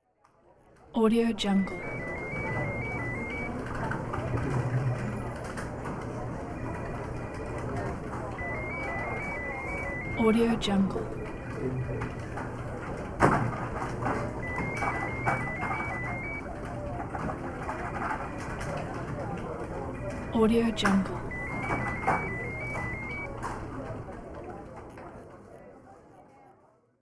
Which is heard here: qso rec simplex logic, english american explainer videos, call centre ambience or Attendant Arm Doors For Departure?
call centre ambience